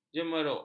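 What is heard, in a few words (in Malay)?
"jemeruk", Malay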